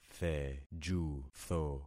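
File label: "thejutho", concatenated syllables; Affixing experiment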